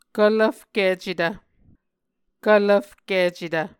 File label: "kvlvfkicetv", Muscogee